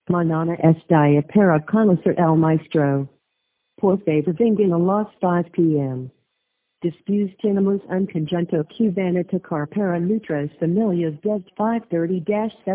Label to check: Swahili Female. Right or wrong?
Wrong